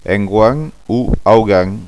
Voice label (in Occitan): "engoan", gasconha